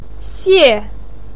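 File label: "xie4", Mandarin pinyin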